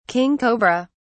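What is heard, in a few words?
"king cobra", British English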